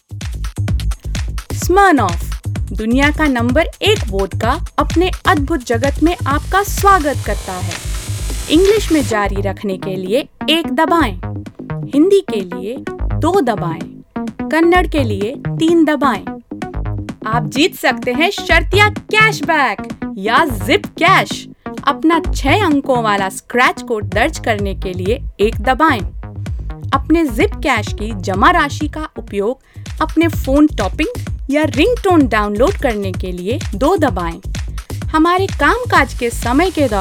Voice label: ivr eng

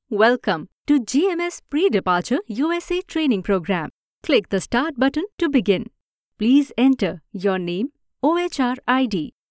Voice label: English E Learning

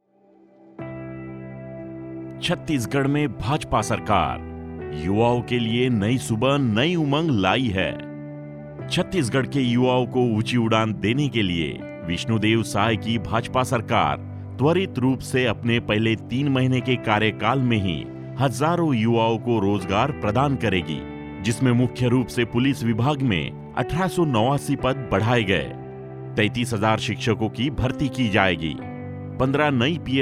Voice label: Hindi; BJP

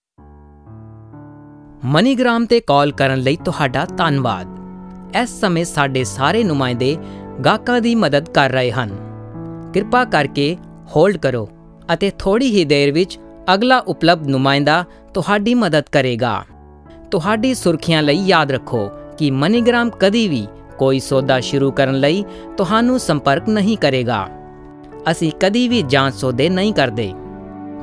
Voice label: IVR Punjabi

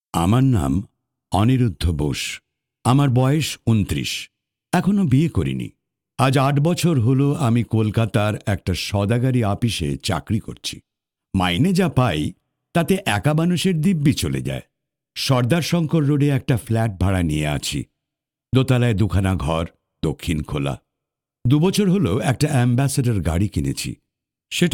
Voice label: narration ben

